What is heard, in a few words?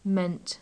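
"meant", British English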